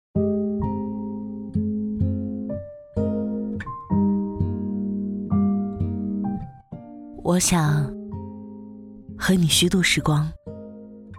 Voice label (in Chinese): 女-走心独白; 我想和你虚度时光